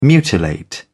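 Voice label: "mutilate", British English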